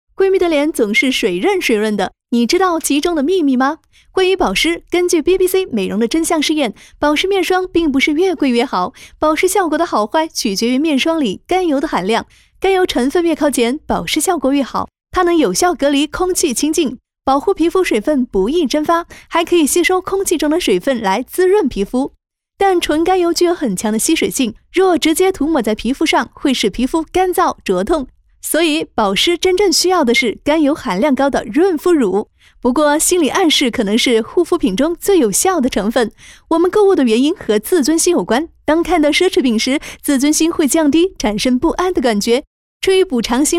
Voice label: 自媒体解说; 样音自媒体解说美容的真相

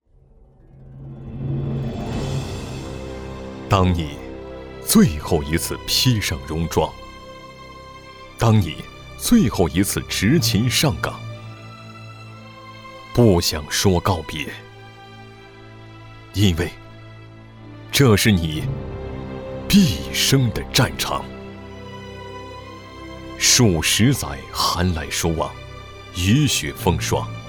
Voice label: 公安离休深情专题

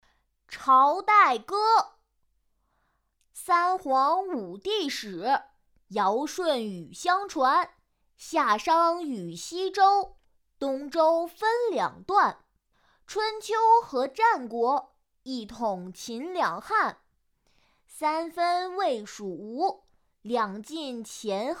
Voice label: 男女童读诗朝代歌

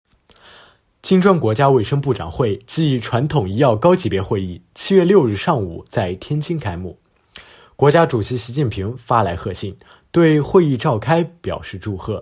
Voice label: 新闻

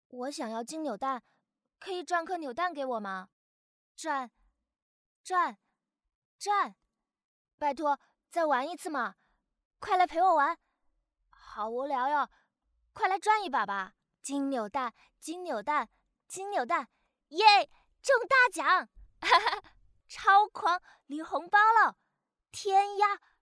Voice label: 男童试音

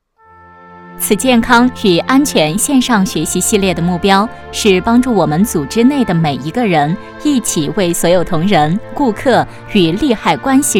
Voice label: 专题 公司章程 解说

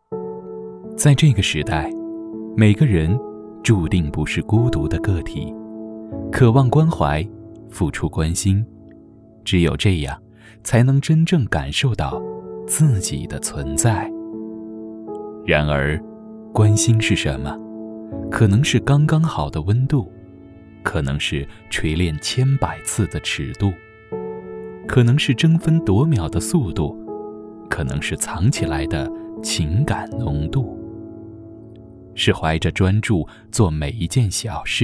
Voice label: 年轻 磁性 品质 广告 自述; 关心是什么

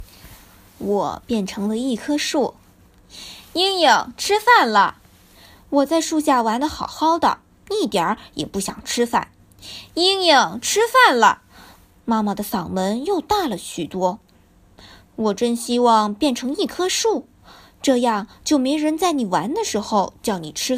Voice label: 课文朗读; 我变成了一棵树

